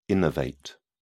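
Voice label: "innervate", British English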